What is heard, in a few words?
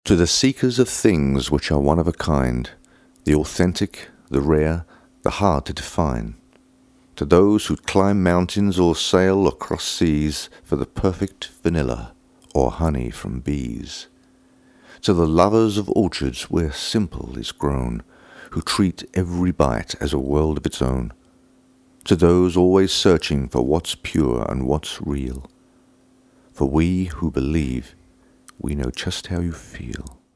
Voice-Over